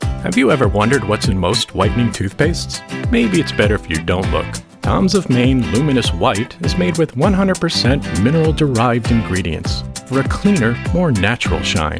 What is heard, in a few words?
Commercial folksy helpful advice